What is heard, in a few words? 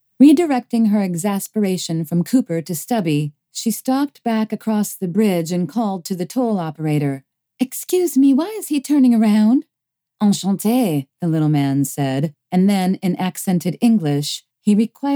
French Dialect; Cry of the West